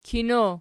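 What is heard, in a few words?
"kino" nominative, Archi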